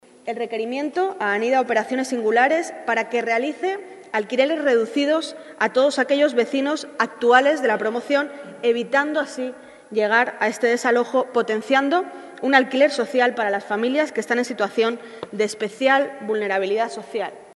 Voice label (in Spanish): noticias